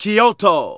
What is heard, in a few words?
Narration